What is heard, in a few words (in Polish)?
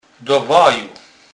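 "do waju", Kurpie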